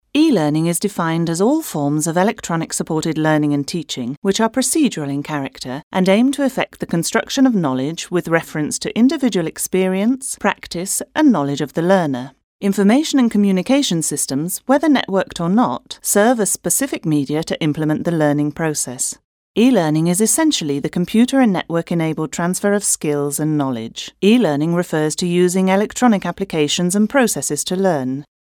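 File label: English British female e-learning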